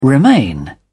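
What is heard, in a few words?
"remain", British English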